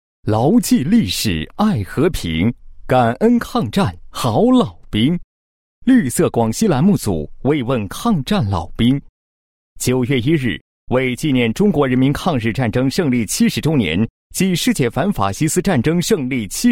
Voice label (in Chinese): 男 大气汇报 干声; 绿色广西慰问抗战老兵; 国语男声配音; 磁性专题; 男98磁性专题